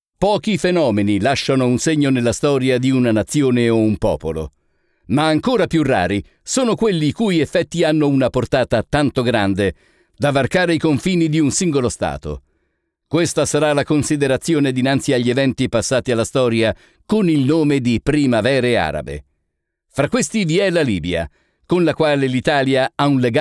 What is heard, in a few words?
Italian voiceover promotional video